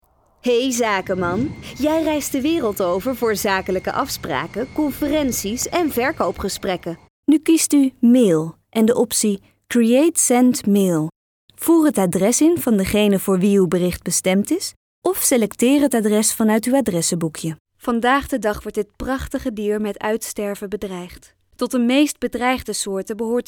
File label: corporate